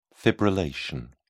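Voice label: "fibrillation", English, British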